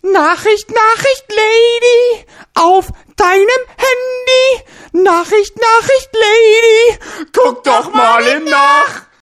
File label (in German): Nachricht Nachricht Lady, ringtones